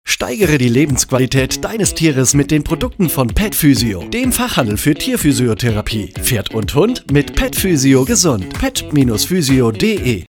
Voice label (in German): Funkspot; Pet Physio